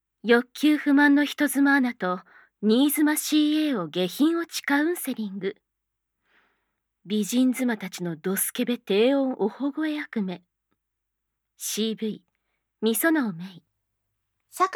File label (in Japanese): タイトルコール, ASMR